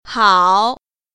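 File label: "hao3", Chinese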